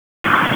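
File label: Wake Forest Police Dispatch, p25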